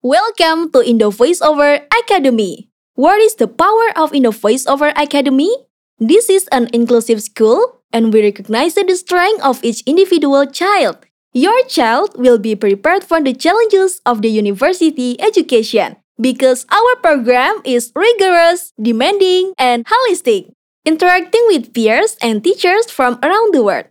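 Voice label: CASUAL English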